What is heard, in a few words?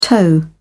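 "tow", British English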